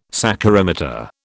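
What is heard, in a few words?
"saccharimeter", British English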